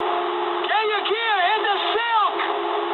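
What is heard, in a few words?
English bailout mood med; speech; War Thunder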